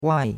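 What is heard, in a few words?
"wai4", Mandarin Chinese; Cours-de-Chinois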